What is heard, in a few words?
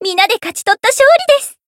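win, Japanese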